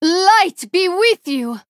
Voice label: Female Draenei